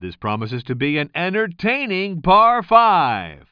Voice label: commentator